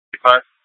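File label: Scottsdale PD North Dispatch; Nth Dispatch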